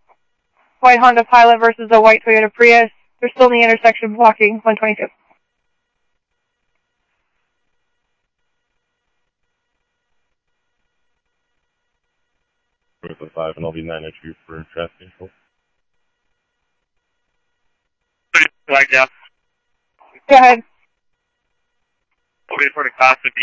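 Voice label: police radio dispatch, Scottsdale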